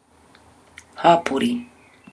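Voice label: "hapori", Maori; community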